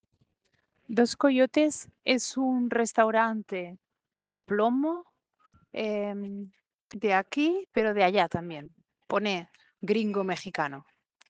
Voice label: Voice message